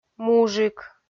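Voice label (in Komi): "мужик", Komi, female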